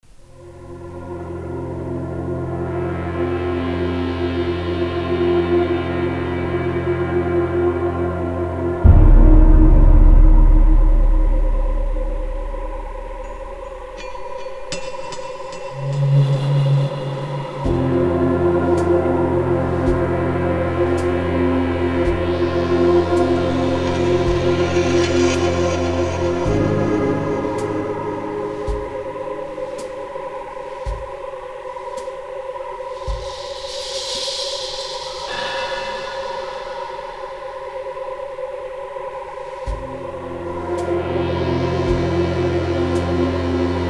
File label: monolog; rockstars venus fly trap; playing ball in the court yard, after dark